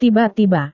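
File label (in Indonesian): "tiba-tiba", Indonesian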